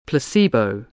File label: "placebo", English